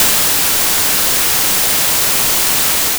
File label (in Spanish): l pvadd ruido, Vocoder